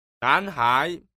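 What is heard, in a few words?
"nan hai", Chinese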